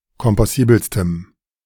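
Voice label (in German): "kompossibelstem", German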